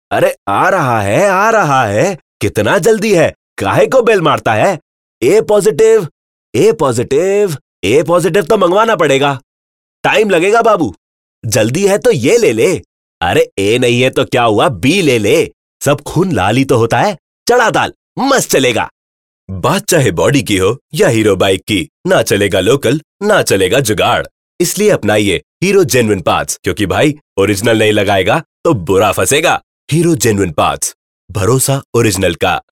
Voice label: hindi, male voice

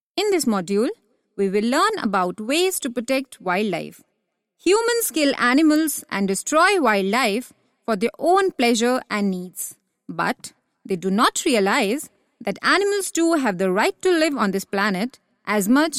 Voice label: female voice English

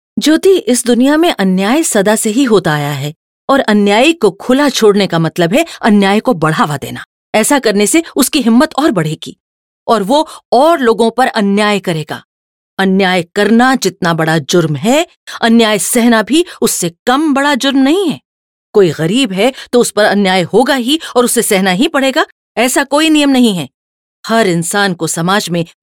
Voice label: hindi sample, female voice

